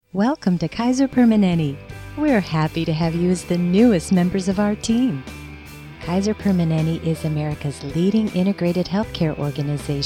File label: Corporate New Hire Orientation